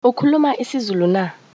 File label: do you speak zulu, zulu